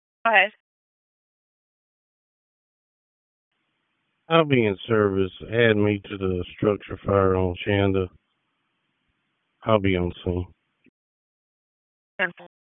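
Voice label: Fire Department HQ Dispatch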